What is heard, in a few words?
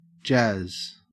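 "jazz", Australian English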